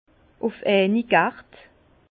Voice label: "uf einigert", Bas Rhin; vin

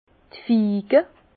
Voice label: "fige", Bas Rhin; vin; figue

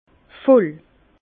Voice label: "voll", Bas Rhin; vin; ivre

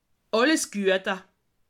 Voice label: "guata", alsace

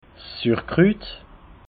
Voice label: "surkrut", alsace

